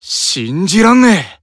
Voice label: Emo Praise